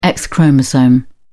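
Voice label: "x chromosome", English, British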